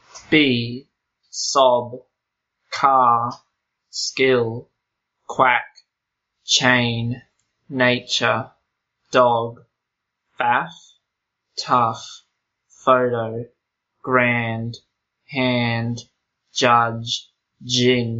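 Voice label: consonants, Australian English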